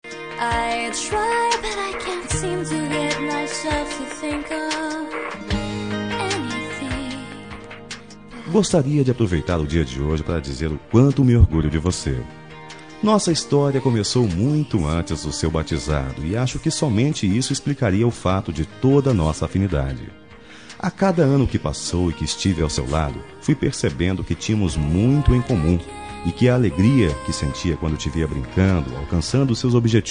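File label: male; Aniversário de Afilhada – Voz Masculino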